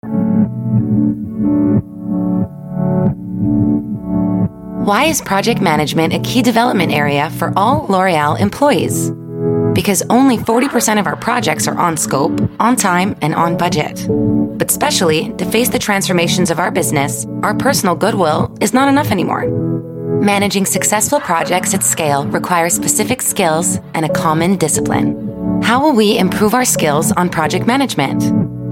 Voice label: US English Corporate; LOreal